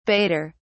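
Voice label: "baiter", British English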